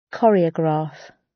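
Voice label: "choreograph" British English; The arts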